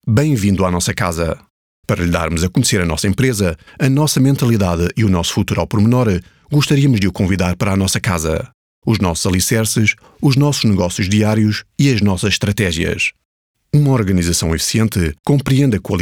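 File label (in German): Portuguese, Kommentar Freundlich; Fremdtake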